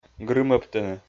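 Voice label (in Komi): "грымӧбтыны", Komi, male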